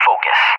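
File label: "Focus", English; USA; CallSign; DCS; Allied Flight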